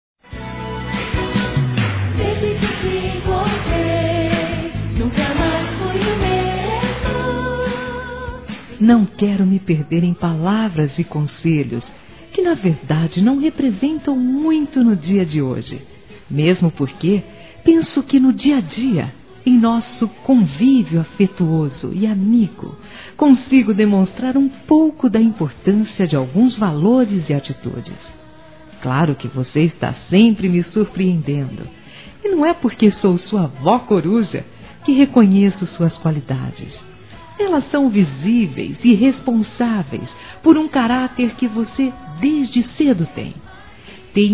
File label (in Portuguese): ANIV NETO (A) (F), Telemensagens Aniversários Evangelico Fem